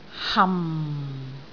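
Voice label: "ham4", Cantonese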